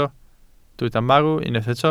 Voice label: "marw", welsh

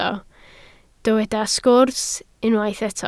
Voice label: "sgwrs", Welsh

